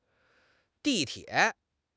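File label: "di4 tie3", Mandarin Chinese; HSK3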